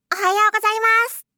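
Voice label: "ohayou gozaimasu", Japanese; aisatsu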